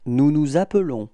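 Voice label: "appeller nous", French; frans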